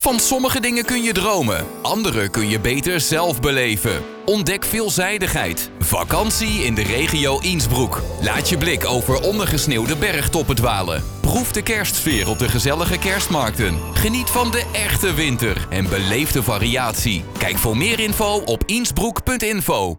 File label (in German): Spot Winter